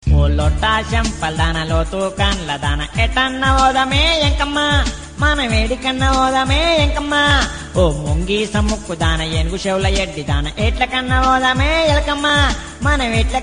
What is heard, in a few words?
Comedy - Telugu; Yenkamma